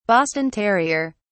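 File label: "boston terrier", British English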